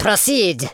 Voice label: "hurry", Super Villain speech